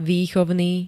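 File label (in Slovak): "výchovný", Slovak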